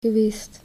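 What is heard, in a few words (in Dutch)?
"geweest", Dutch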